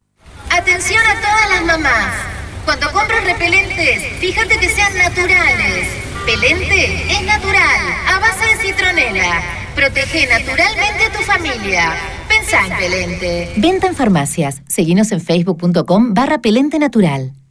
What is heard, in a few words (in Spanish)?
megafono; hablados; acting